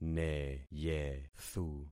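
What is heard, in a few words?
"neyethu", concatenated syllables; Affixing experiment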